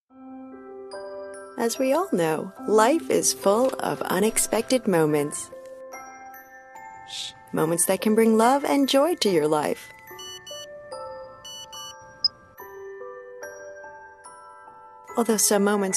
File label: babyvoice